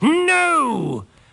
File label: "no" angry british bloke; Voice; expletives